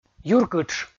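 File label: "юркытш", Komi, male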